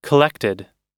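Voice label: "collected", American English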